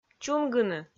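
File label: "чунгыны", Komi, female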